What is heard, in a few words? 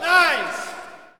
Hockey Male Nice; Hockey Vocal Sounds